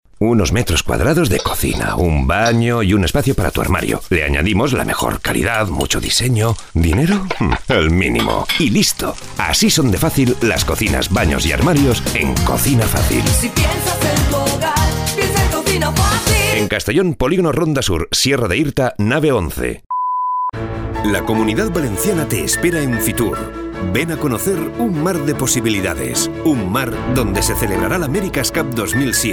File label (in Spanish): comercial